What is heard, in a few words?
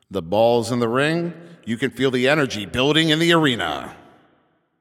Ball Placed in Start Ring, Announcer